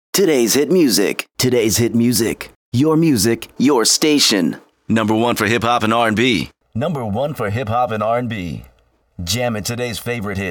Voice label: Generic Radio Lines